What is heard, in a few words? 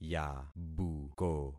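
"yabuko", concatenated syllables; Affixing experiment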